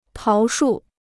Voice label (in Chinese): "桃树", Chinese